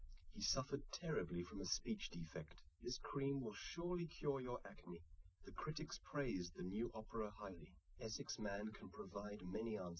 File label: test male live rec, underdetermined; SiSEC10